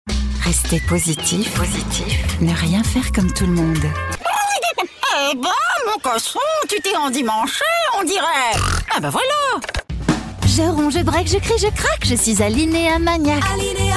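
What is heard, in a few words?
French, commercial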